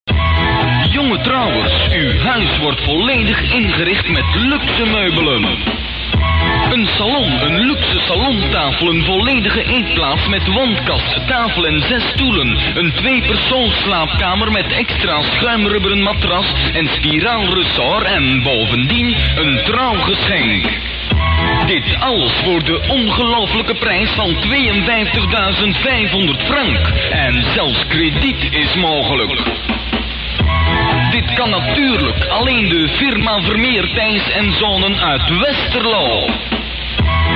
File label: commercial